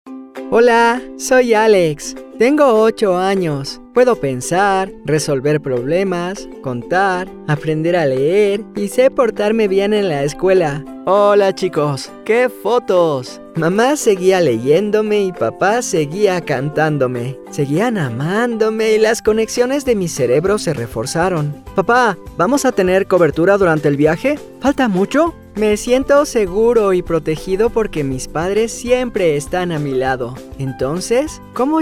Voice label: Commercial Teen Spanish

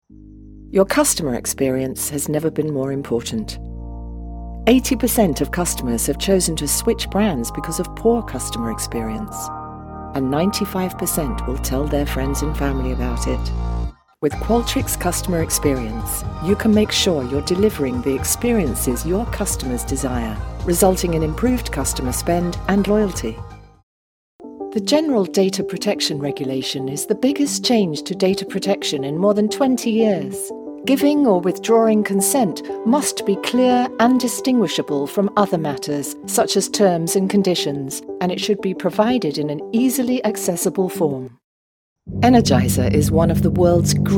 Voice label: Corporate

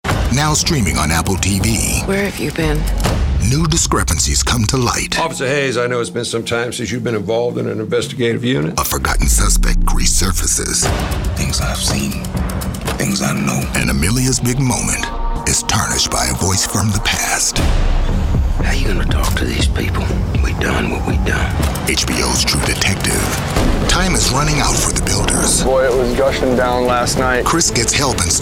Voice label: Promo

